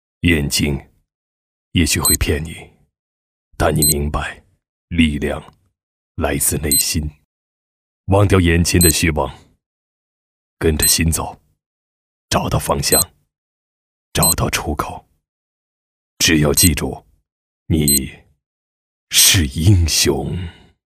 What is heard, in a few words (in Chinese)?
男 广告 质感颗粒感 电影宣传, 国语男声配音